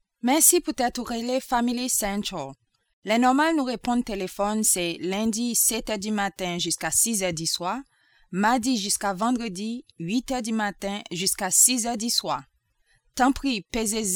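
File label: Creole French Haiti, female, voice over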